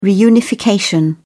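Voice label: "reunification", British English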